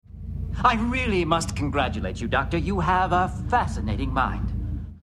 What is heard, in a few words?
Distant Voices; garak; Mind